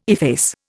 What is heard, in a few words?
"efface", Indian